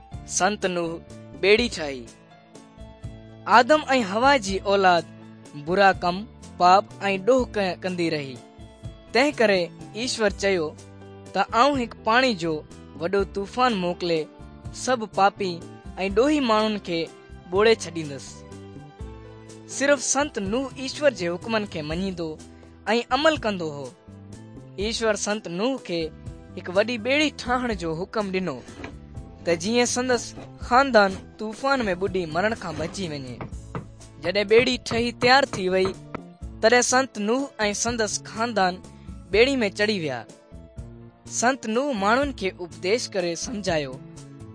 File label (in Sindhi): good news sindhi dukslinu, drama